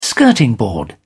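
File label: "skirting board", British English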